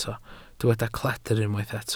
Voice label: "cledr", Welsh

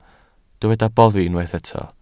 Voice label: "boddi", Welsh

